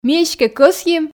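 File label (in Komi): ми эськӧ кӧсйим, Komi, female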